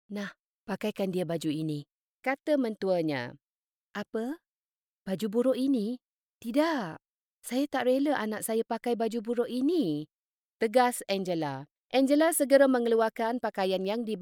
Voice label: Audiobook sample; Tagalog (Filipino)

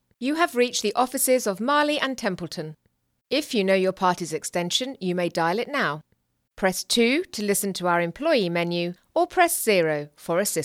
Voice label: Telephone

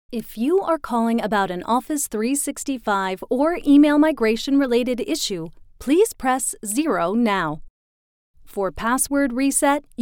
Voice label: Phone Service Voice Sample

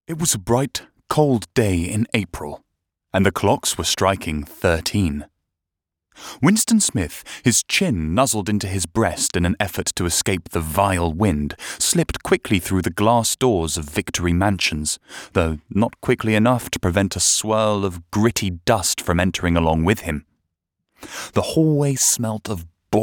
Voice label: Narrative

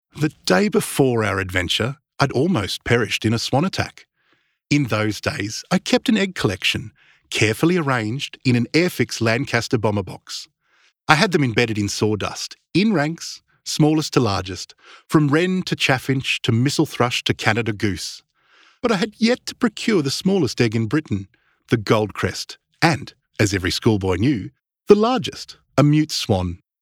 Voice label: AUDIOBOOK MOUNTAIN PATH

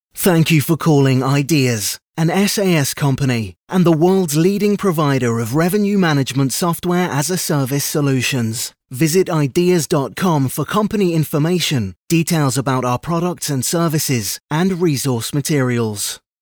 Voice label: IVR Bold

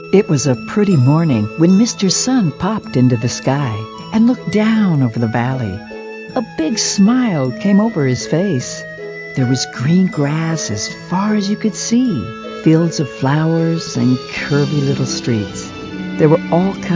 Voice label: Childrens Book

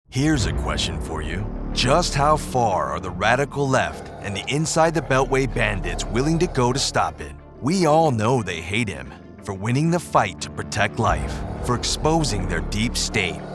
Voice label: POLITICAL AD

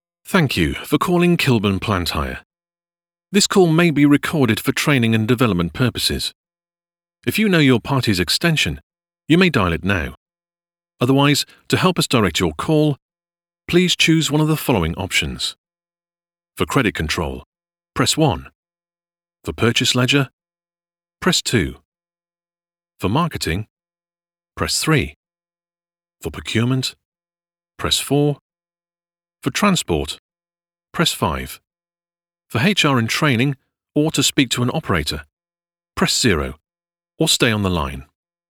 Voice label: IVR Telephony

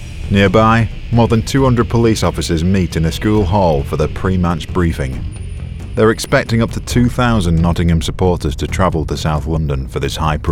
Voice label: Football Documentary

